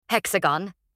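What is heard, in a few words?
"hexagon", voice; matching game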